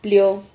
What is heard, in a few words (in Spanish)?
"plio", Silabas